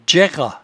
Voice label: "djairrat", patois jura

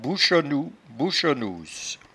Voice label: "bouchonnou", patois jura

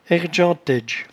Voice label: "airdgentaidge", patois jura

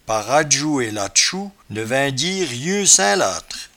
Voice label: "parajouetlatchou", patois jura

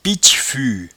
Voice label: "pitchefue", patois jura

